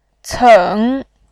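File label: "ceng", Mandarin Chinese, tone 3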